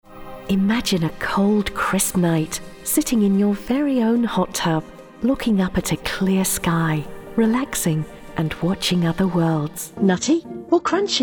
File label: Commercials